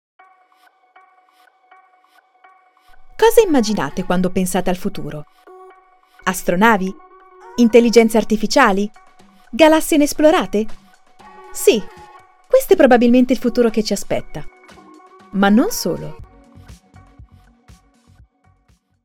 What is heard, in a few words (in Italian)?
Pubblicità